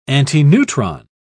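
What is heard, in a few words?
"antineutron", British English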